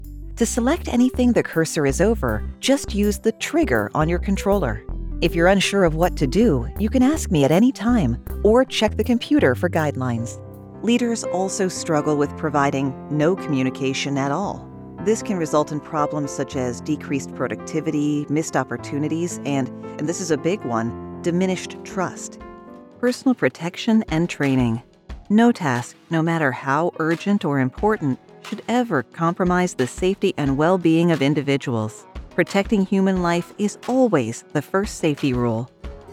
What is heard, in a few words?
Corporate, professional female voiceover; Real human voice - no AI!